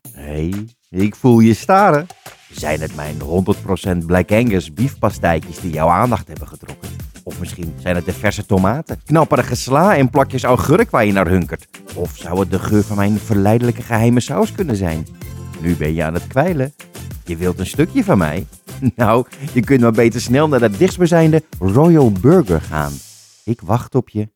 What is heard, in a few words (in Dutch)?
Commercial demo